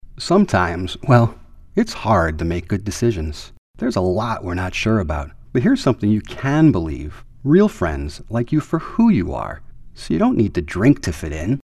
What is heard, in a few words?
PSA Drink